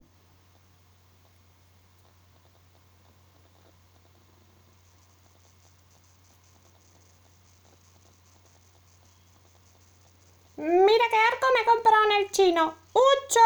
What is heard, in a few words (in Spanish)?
doblaje español